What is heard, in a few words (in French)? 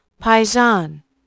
paysanne